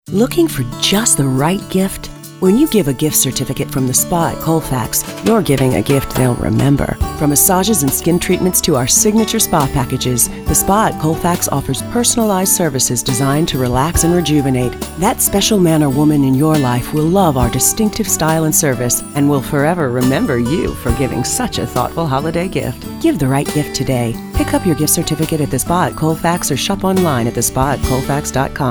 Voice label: Commercial Luxury Textured Raspy